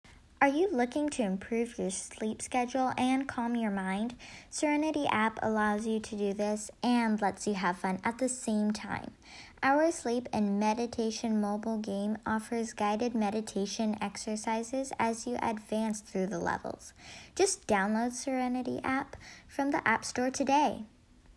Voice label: Voice Sample, Age Range: 12-14; Voiceover; Non Union